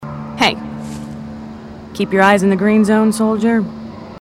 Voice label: female marines

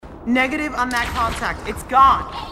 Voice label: marine sassy

